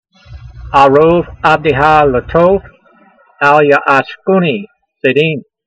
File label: voice, hebrew language; Psalm 119:122; a'rov avdeha letov, al-ya'ashkunee zaydeem